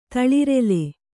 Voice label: "ತಳಿರೆಲೆ", Kannada

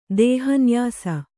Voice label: "ದೇಹನ್ಯಾಸ", Kannada

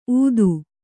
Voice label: "ಊತು", Kannada

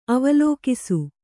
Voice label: "ಅವಲೋಕಿಸು", Kannada

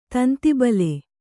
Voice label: "ತಂತಿಬಲೆ", Kannada

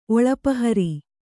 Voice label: "ಒಳಪಹರಿ", Kannada

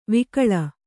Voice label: "ವಕುಳ", Kannada

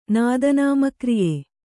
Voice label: "ನಾದನಾಮಕ್ರಿಯೆ", Kannada

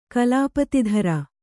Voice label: "ಕಲಾಪತಿಧರ", Kannada; kalāpatidhara; noun

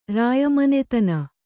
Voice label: "ರಾಯಮನೆತನ", Kannada

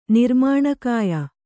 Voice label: "ನಿರ್ಮಾಣಕಾಯ", Kannada

